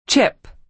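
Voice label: "chip", American English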